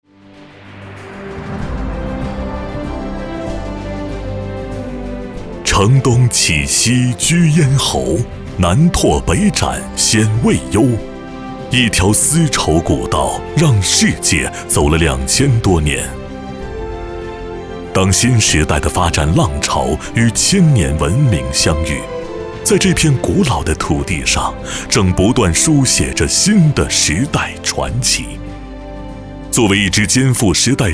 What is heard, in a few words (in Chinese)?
男, 专题, 大气沉稳; 丝绸古道